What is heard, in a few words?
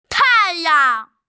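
"tai4yang2", Mandarin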